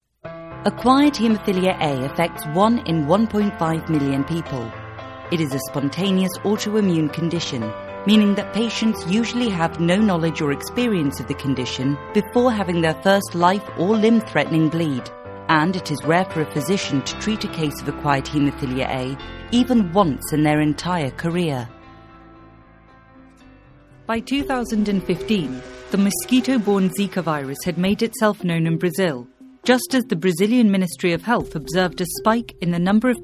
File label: english british medical narration